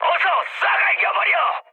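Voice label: Korean attack position mood high, speech; War Thunder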